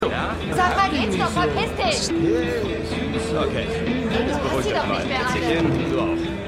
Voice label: Frau Club, Mann Club; Liaison

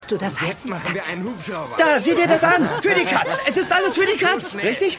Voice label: Mann; Madam Secretary

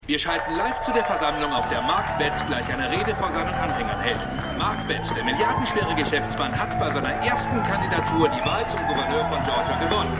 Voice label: Nachrichtensprecher; Atlanta Medical; Schau und schrei; Peek and Shriek

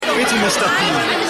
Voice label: Schueler; The Wire

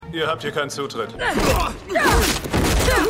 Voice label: Wachmann, Stimmproben

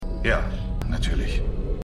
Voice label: Krankenpfleger Juenger; The 100

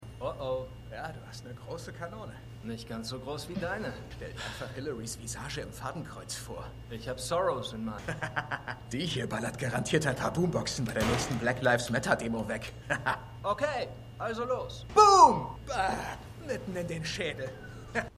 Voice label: Mann; The Good Fight

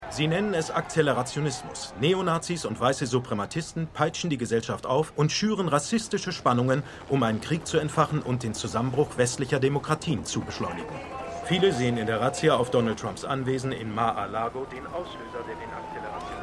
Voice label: Nachrichtensprecher; The Good Fight

